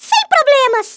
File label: flawless, Speech Portuguese; Worms Armageddon